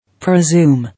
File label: "Presume", English